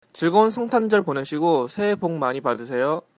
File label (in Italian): coreano